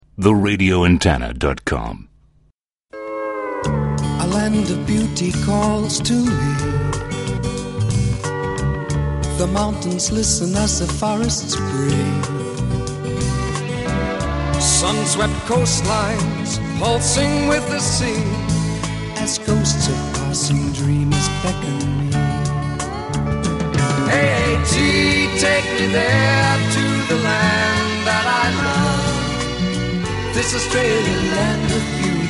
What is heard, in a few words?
Classic Aussie Commercial Bus Line; Aircheck; AAT